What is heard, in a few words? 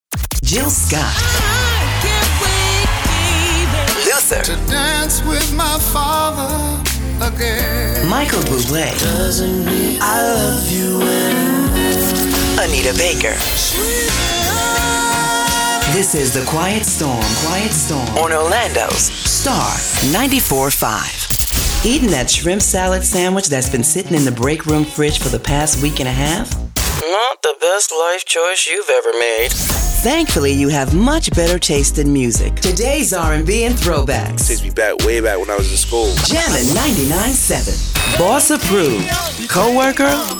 Radio Imaging